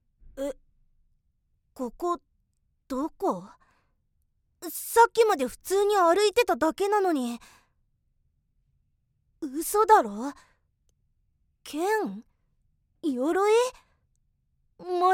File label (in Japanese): 少年